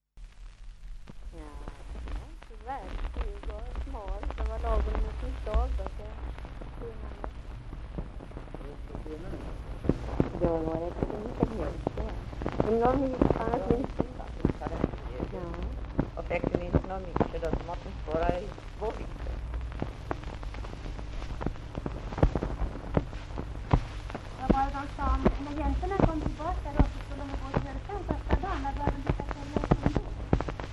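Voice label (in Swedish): Österfärnebo Gästrikland, dialekter; Folkminnen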